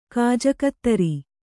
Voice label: "ಕಾಜಕತ್ತರಿ", kannada